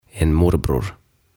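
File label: "En Morbror", Swedish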